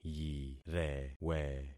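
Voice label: "yirewe", concatenated syllables; Affixing experiment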